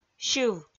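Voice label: "сьыв", Komi, female; audio dict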